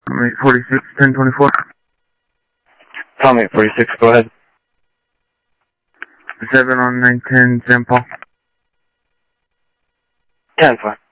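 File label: Sheriff Lake Patrol, scanner audio trunk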